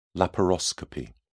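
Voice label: "laparoscopy", British English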